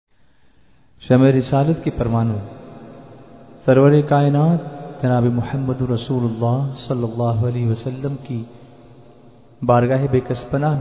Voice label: Announcement; naat